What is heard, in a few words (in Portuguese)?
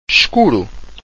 "escuro", Portuguese